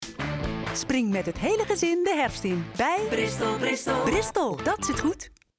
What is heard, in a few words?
Radio commercial Jingle en V.O; Bristol